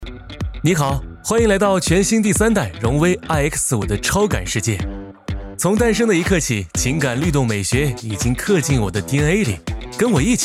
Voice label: 活力版; 荣威活力版

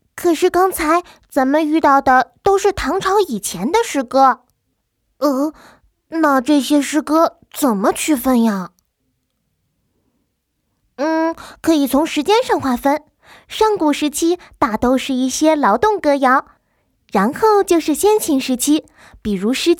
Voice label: 男女童

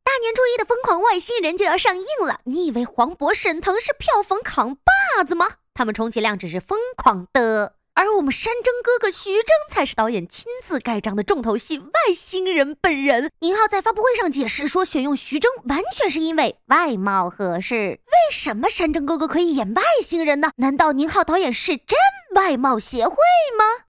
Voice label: 吐槽

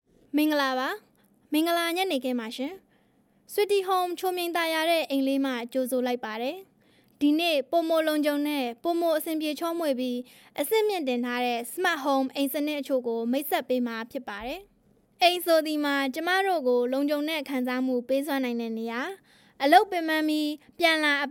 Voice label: 缅甸女

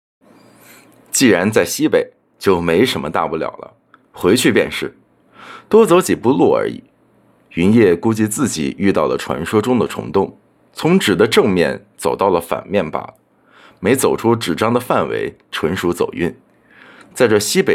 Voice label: 穿越类 小说 试音

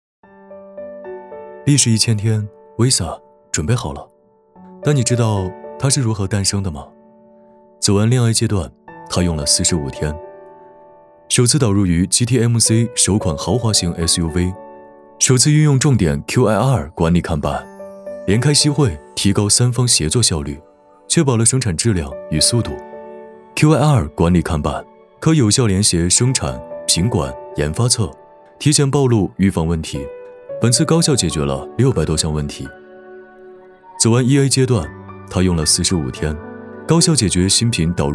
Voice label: 年轻走心; 广汽丰田威飒历时一千天

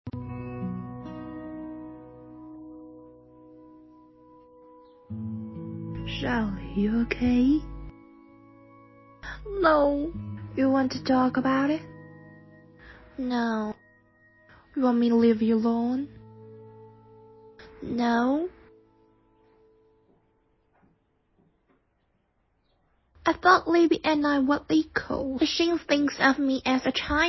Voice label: 英语

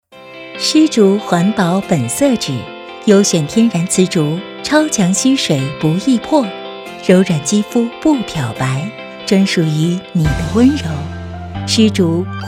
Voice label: 普通话-女-真人商业配音; 诗竹环保本色纸

